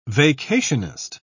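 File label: "vacationist", British English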